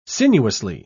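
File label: "sinuously", British English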